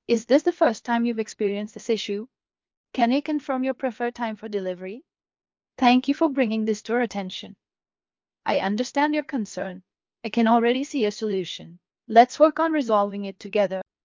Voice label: after V2, accent conversion; Krisp